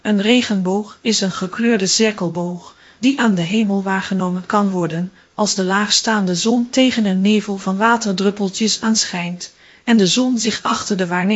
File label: speaker, nl NL